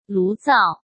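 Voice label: "炉灶", Mandarin Chinese; chinese flashcards; hsk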